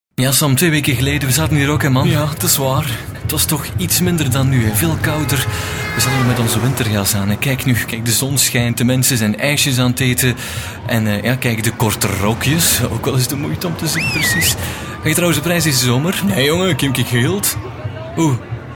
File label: flemish male voiceover locutor belga flamenco